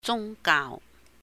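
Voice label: "jùng gaau", Cantonese; religious; 宗教; holidays and festivals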